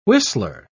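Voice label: "whistler", English word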